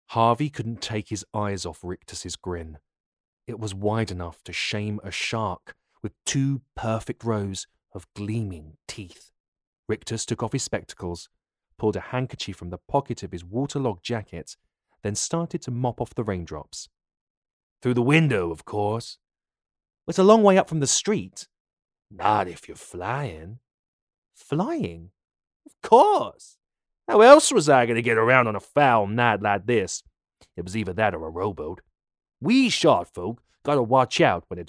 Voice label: Children